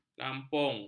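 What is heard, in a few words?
"lampung", Malay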